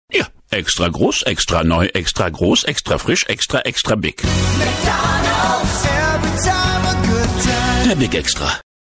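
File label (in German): Werbung, Dynamisch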